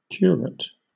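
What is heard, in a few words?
"curate", British English; pronunciations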